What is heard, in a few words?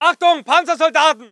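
voice german infantry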